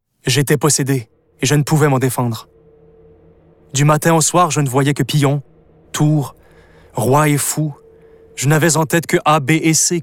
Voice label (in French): Narration